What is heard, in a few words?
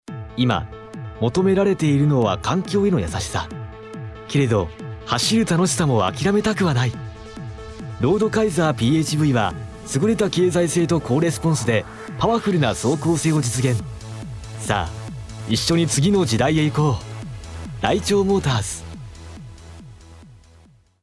narration; 男性